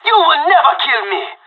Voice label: Pirate Last man standing; Counter-Strike Global Offensive; PS3 Windows Xbox 360